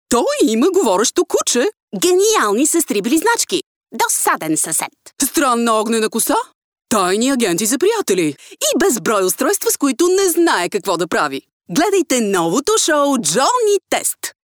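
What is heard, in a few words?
Bulgarian, female, voice over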